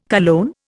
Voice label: "cologne", Indian

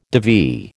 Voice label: "divi", American

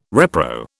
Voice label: "repro", British

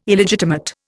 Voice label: "Illegitimate", Indian

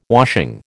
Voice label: "washing", American

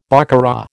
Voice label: "baccarat", American

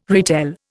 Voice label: "retell", Indian

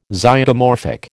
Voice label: "Zygomorphic", American

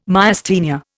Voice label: "Myasthenia", Indian

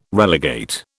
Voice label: "relegate", British

